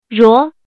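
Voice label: "ruó", Mandarin Chinese; 挼; 揉搓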